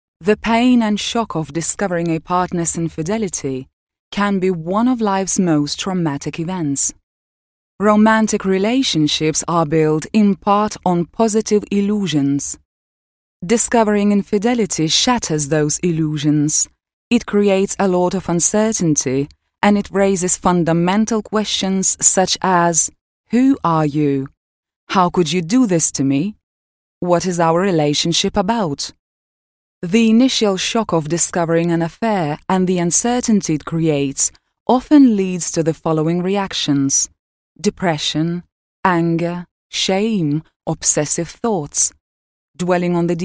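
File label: Russian Accented English; GoLocalise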